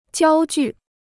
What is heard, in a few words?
"焦距", Chinese